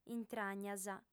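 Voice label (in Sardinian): "intragnas", female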